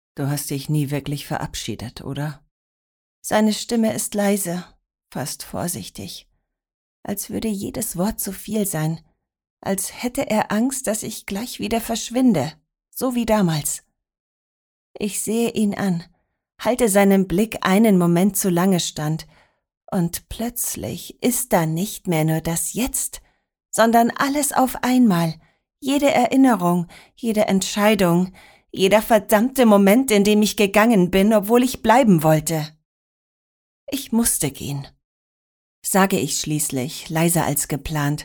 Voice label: demo romance